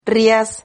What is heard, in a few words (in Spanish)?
"rriaz", Silabas